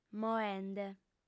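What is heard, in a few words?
"moende", female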